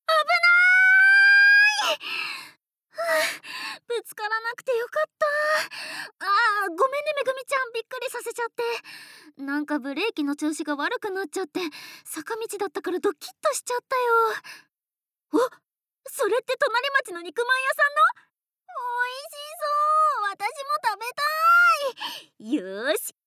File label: School girl